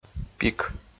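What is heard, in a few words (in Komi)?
"пик", Komi, male; audio dict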